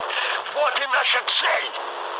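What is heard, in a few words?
Russian near waypoint mood high, speech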